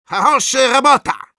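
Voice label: cheers, Russian; Medic; «Хорошая работа!»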